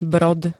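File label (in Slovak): "brod", Slovak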